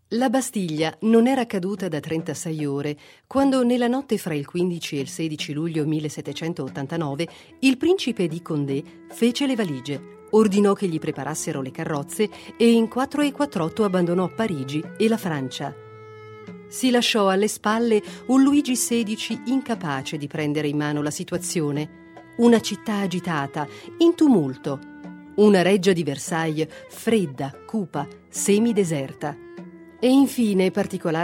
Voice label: italian female